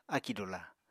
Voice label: "akì dula", Apatani